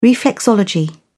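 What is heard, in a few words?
"reflexology", English, uk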